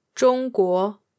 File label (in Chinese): "zhong1 guo2", Chinese; zhōngguó, China; tones